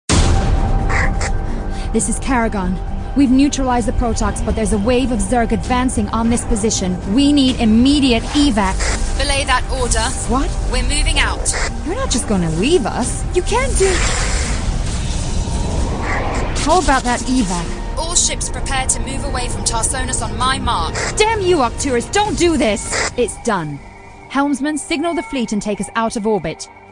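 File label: Bulgarian; GoLocalise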